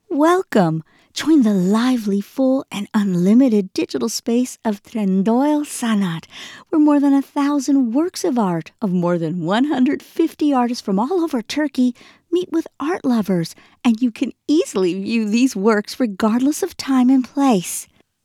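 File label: English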